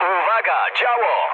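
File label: Polish damaged anti-aircraft mood medium; War Thunder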